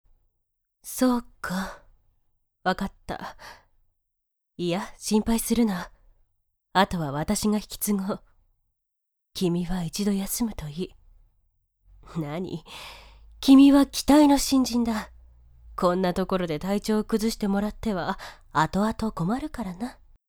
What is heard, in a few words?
woman